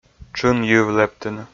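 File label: "тшын йыв лэптыны", Komi, male; kpv audio dict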